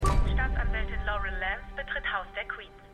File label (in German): Computerstimme; Felicitys; Arrow